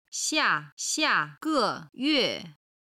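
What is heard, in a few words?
"xiaxiage xingqi", Chinese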